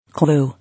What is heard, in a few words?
"Clue", English